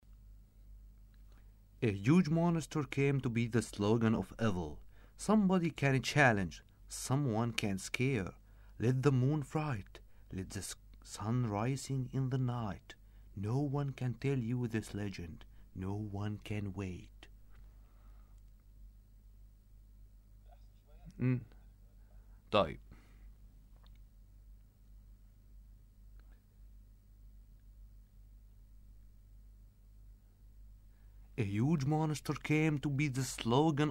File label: horror english